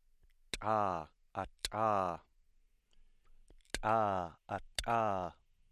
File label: alveolar ejective stop